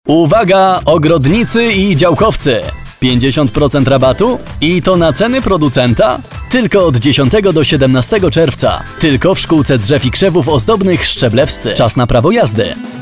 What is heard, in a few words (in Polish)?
mix reklam